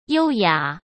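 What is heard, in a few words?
"优雅" Mandarin Chinese; hsk; chinese-flashcards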